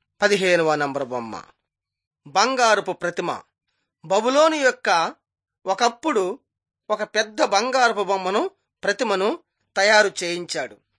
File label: telugu bangladesh; on trial for god